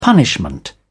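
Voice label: "punishment", British English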